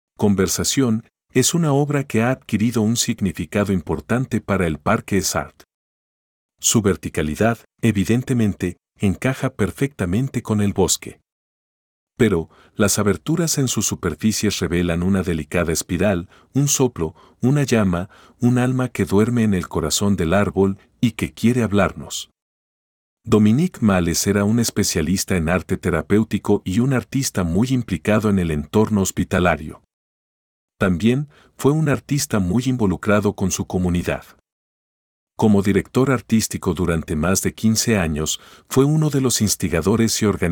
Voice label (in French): espagnol